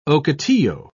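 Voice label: "ocotillo", English, British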